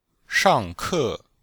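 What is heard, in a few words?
"shang4ke4", Chinese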